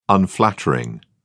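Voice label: "unflattering", English, uk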